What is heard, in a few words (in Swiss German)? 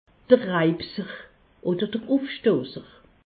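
"raipser", Alsatian; medecine; régurgitation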